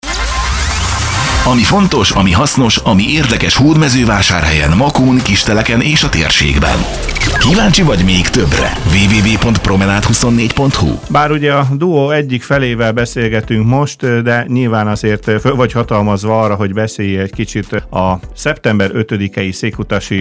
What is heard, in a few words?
Podcast; Kutas; szekkutas